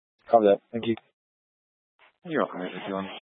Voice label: Police Department Dispatch, scanner